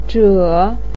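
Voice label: "zhe3", Mandarin pinyin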